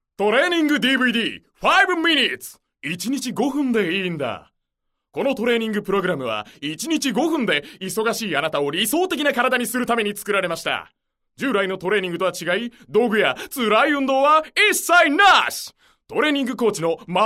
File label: narration